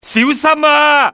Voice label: "watch out", male; XBOX; civ